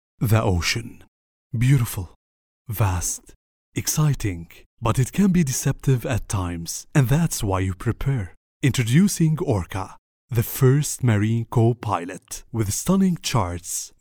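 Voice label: Arabic, Saudi Arabia, male, voice over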